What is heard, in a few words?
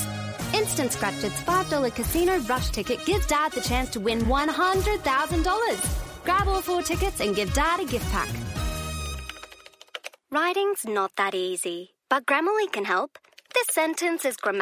Voice Over, Female, Commercial & Corporate Narration; female voice over artists australia